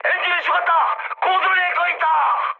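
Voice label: Korean damaged engine mood high; War Thunder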